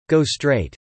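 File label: "go straight" spoken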